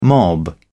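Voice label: "mob", British English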